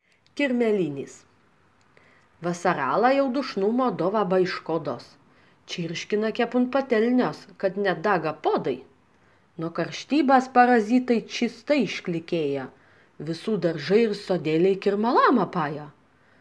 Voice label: memo voice